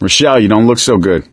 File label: suggest health, survivor voice; Left 4 Dead 2; Source Engine; video games; gambler